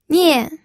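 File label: "nian", fourth tone, pinyin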